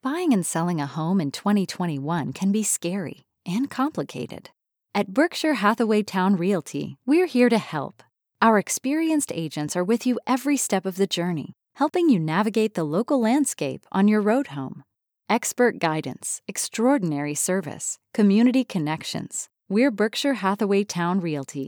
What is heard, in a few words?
english american real estate; Chattanooga; hauptberufliche Synchronsprecherin; Heimstudio